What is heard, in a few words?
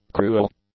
"cruel", English; adjectives